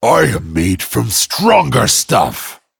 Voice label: Male Dragon TRIGGER IRON HIDE